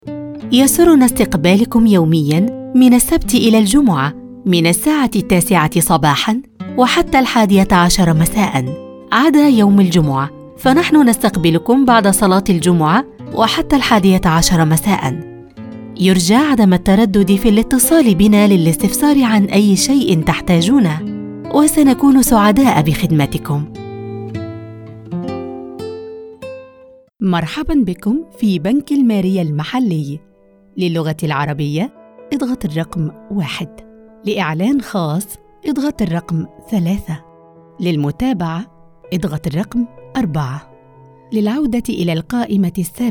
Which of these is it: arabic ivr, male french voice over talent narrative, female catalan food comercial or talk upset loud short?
arabic ivr